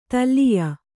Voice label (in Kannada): "ತಲ್ಲೀಯ", Kannada